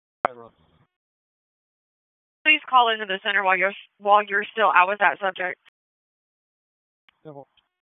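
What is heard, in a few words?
Raleigh Police Southeast District Dispatch, p25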